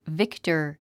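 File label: "victor", English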